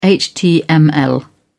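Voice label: "html", British English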